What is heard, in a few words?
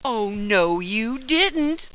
oh no you didnt, sultry; attack